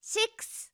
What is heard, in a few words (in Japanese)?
"しっくす", 明るい声